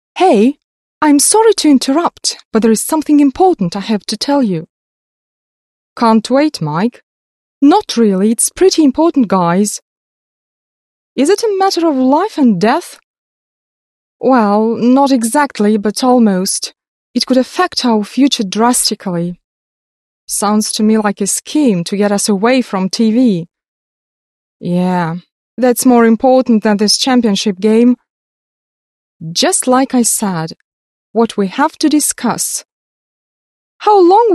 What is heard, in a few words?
british english female